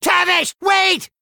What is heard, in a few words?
Male Gnome